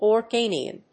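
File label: "organian", English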